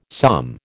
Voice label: "psalm", American

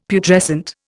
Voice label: "Putrescent", Indian; Dictionary

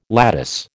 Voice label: "lattice", American

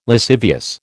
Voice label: "lascivious", American

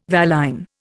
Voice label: "valine", Indian

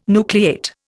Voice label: "nucleate", Indian

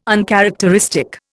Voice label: "uncharacteristic", Indian

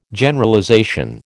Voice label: "Generalization", American

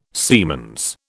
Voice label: "siemens", British

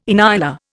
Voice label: "inyala", Indian

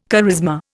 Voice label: "charisma", Indian

